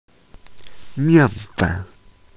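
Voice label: "mian4 fen3", Mandarin Chinese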